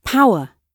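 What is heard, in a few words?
"power", British English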